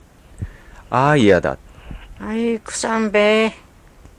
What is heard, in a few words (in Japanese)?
ああいやだ, Ainu; mukawa